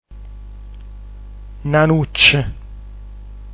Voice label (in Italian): "nanuc", dialetto giulianova